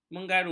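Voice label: "menggaru", Malay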